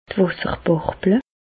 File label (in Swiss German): "wasserbarble", Bas Rhin; medecine; varicelle